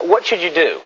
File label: what should you do, male; questions